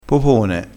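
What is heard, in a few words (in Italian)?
"popone", dialettale, crespinese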